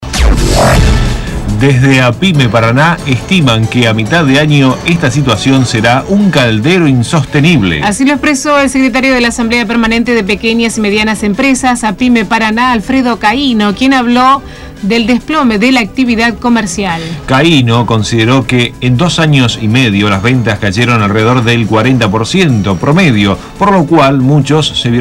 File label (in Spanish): informativo; apyme; caida comercial